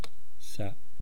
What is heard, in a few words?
"sa", East Cree syllabics